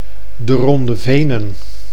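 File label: "De Ronde Venen", Dutch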